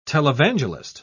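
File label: "televangelist", British English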